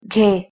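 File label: "gheh1", Proto-Indo-European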